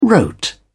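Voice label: "wrote", English, British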